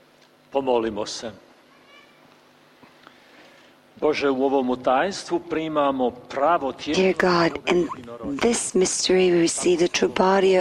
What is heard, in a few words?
Prayer and Announcement